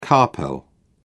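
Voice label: "carpel", British English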